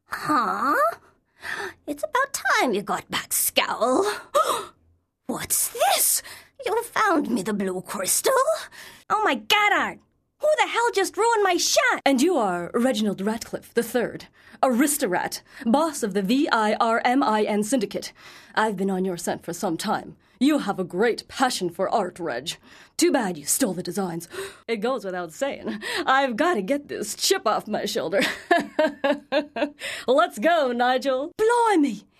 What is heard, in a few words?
animation female